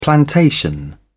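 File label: "plantation", British English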